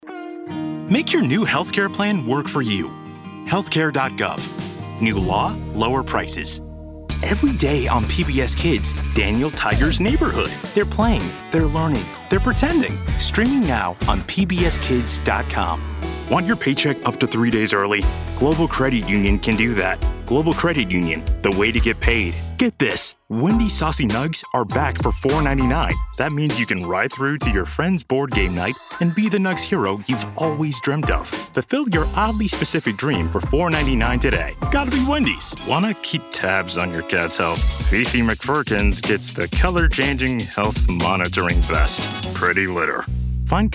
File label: Voice, Commercial